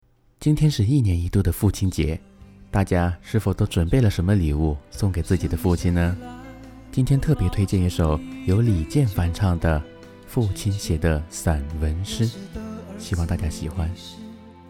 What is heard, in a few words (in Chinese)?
电台 音乐 缩混